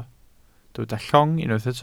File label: "llong", welsh